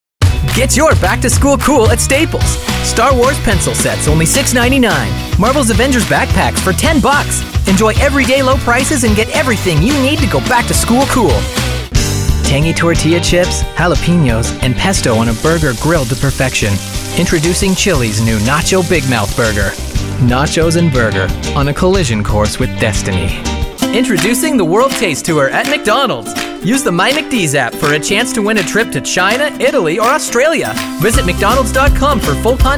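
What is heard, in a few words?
Canadian English, Commercial; GoLocalise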